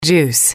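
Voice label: "juice", English, female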